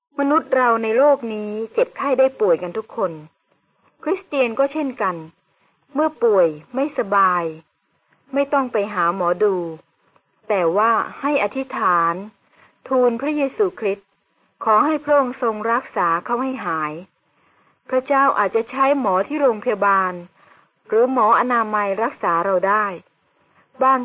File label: good news short thai central